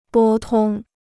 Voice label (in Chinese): "拨通", Chinese